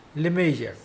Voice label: fifty, Mokilese